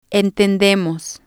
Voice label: "entendemos", Spanish

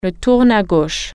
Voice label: wrench, french

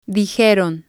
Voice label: "dijeron", Spanish

